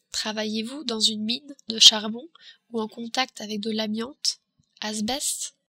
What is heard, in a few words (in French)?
mine de charbon, french